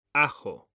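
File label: "ajo", Spanish, male; mekanta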